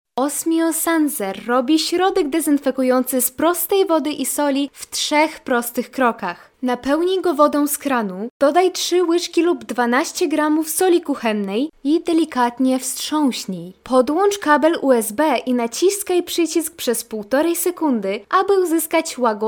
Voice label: Polish female

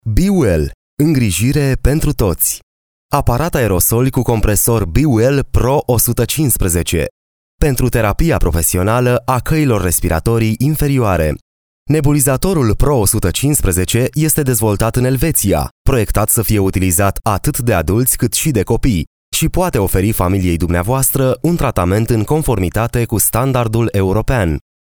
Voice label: Romanian

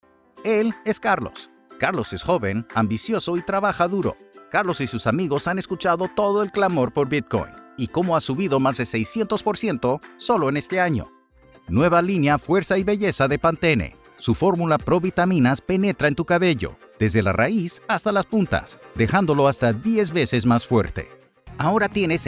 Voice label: Spanish Latin

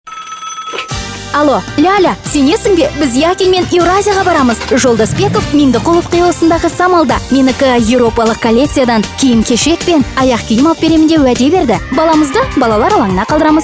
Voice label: Kazakh

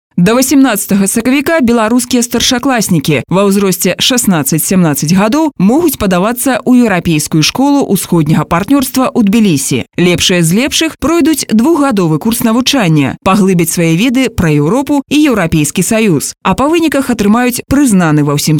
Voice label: Belarusian female

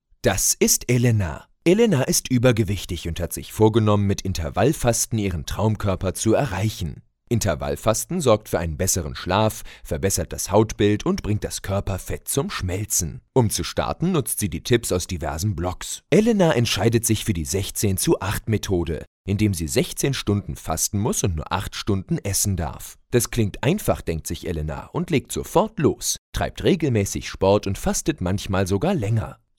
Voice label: German male

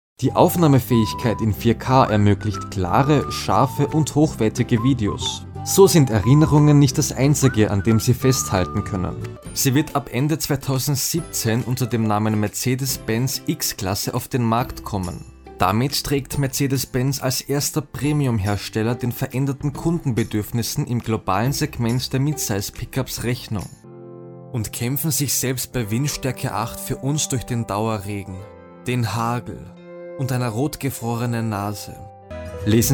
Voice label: German male

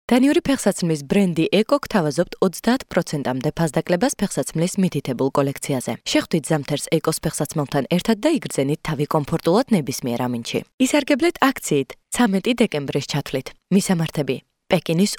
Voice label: Georgian female

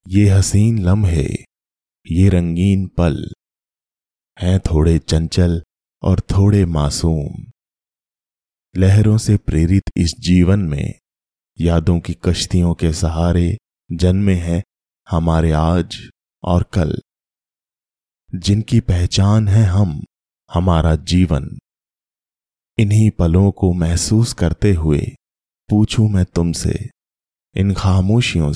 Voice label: Hindi male

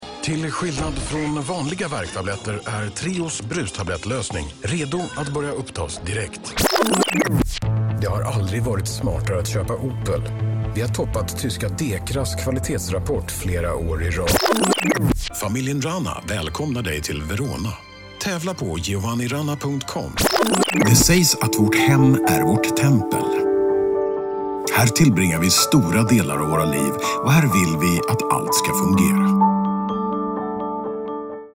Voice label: Swedish male

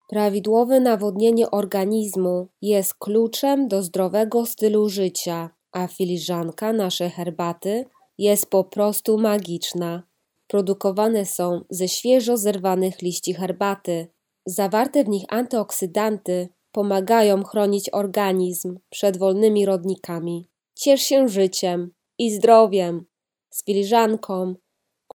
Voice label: Polish

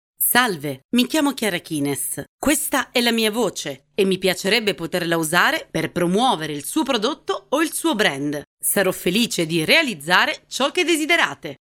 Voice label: Italian

